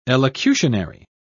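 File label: "elocutionary", British English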